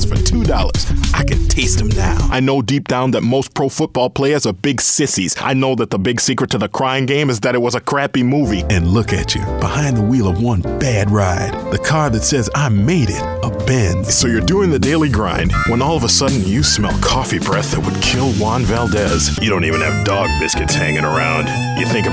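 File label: Commercial, real people male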